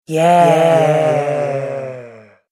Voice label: "Yeah" With Delay Female Voice; Recorded Voice Sound Effects

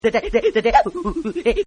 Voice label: Crazy Voice; Talking; Partners In Rhyme

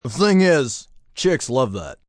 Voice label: Chicks Love That, male; Talking Sound Effects

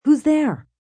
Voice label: Whos There, Questions, female; human voice speaking; Talking; VoiceBox

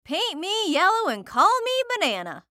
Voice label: Cartoon Voice Female; Cartoon Voices; Blastwave

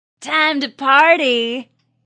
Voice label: Woman Says Time Party; Woman talking

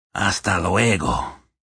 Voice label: See You Spanish, Male

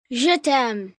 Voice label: I Love You, 1 boy, 8 yrs., French; Endearment; Talking

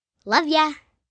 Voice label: Love Ya, Endearment, 1 girl, 16 yrs; Talking; VoiceBox

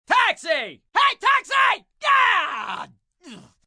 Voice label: Taxi Yelling, male

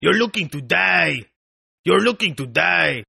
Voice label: Toughguy Die English, Tough Hispanic Male; Saying "You Are Looking To Die!" In English; Attitude Sound Effects

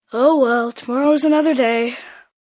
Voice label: Another Day, Sayings, 1 boy, 11 yrs; Talking Sound Effects; VoiceBox; Twistedtracks

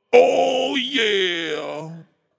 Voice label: Vocal Deep, Funk vocal loop sample.

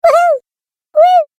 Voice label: Cartoon Game Voice Happy Sounds; Cartoon Voice Sound Effects

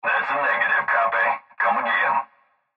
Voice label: Cb Trucker Radio; Radio Voice; Blastwave

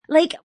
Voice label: Young Lady Says Like; Talking

